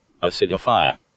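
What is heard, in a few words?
"acidify", US English; pronunciation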